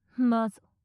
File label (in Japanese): "マゾ" 強く・近・左; マゾオスのあなたに敗北射精の快楽を叩き込む音声